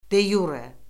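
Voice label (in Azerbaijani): "de-yure", Azerbaijani; Orfoqrafiya Lüğəti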